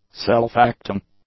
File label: "self-acting", English; adjectives